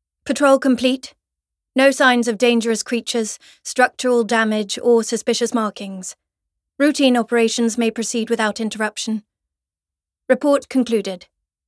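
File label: voice English welcome; Rhythm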